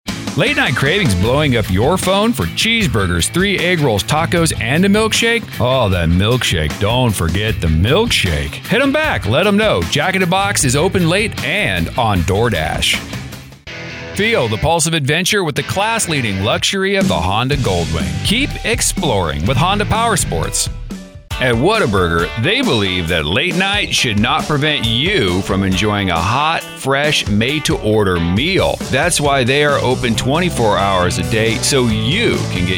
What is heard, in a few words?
VO Commercials